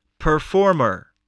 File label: "performer", English, voice